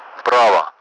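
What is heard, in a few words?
Russian speech, Mi-8MT; Messages right; DCS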